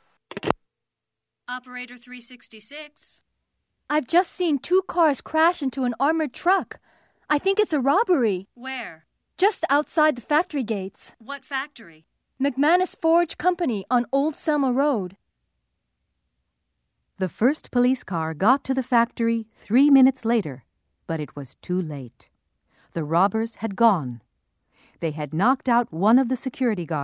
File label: Emergency dial 911